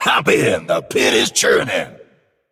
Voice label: Male Manari

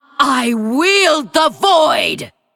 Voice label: Female Undead In Game Boss Uses Hero Power; Lich Tamsin

